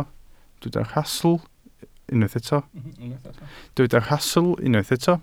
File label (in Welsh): "rhastl" Welsh